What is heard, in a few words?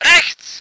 voice ger vehicle